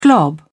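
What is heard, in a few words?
"glob", British English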